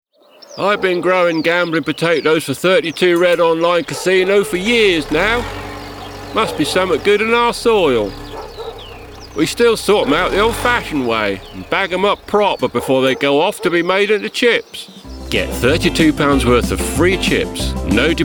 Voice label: ad

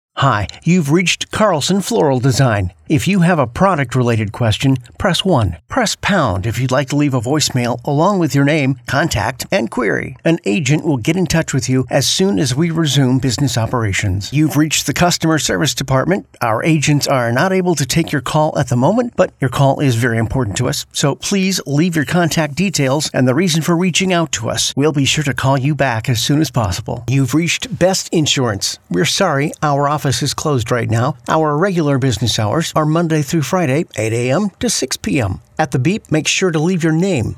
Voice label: Phone Systems ACD

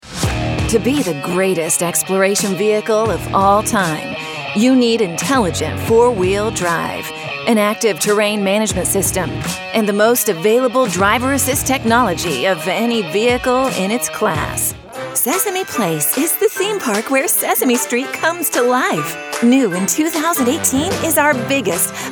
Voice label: Hard Sell Commercial

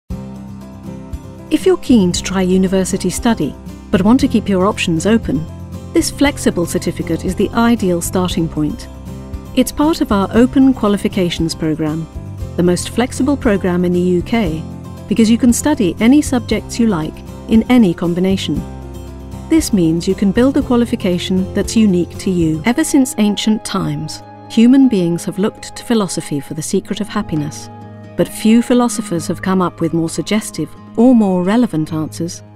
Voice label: Narrative Reel

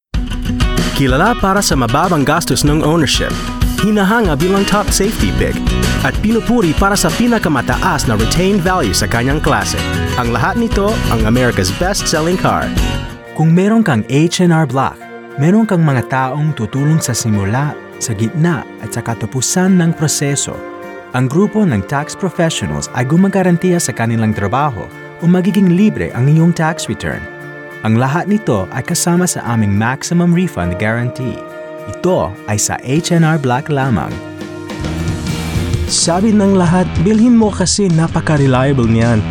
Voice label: Tagalog

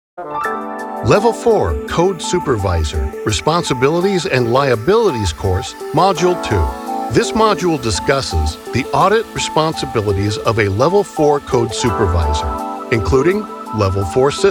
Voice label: e-learning

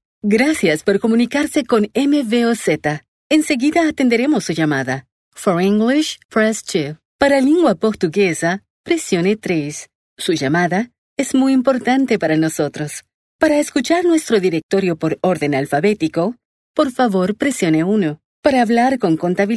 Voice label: On Hold

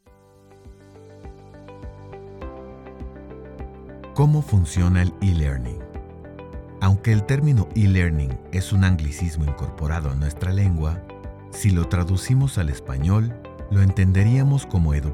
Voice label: eLearning

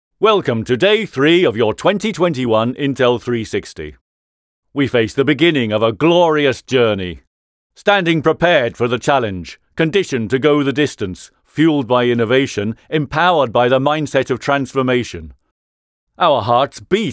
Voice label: MEETING HYPE INTRO BRITISH ACCENT

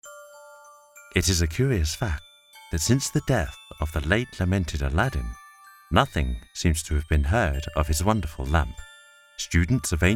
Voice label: audiobook

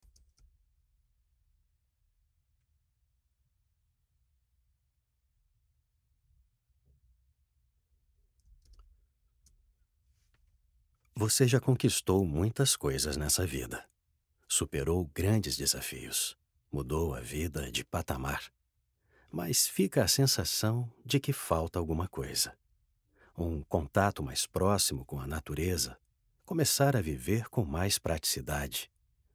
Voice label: Recording Studio Test

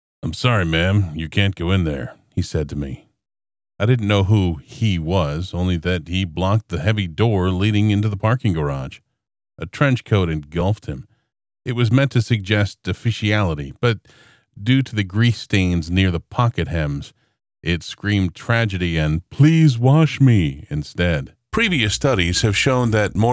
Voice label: narration audiobook

